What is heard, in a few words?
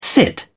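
"sit in", British English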